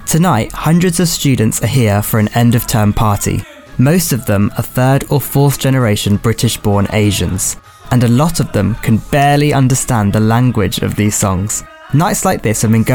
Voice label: male english documentary narration; bhangra